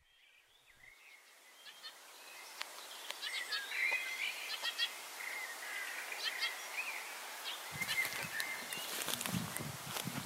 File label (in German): Gedicht Sound Sprache; Beuys